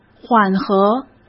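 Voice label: "缓和", Mandarin Chinese; hsk; chinese-flashcards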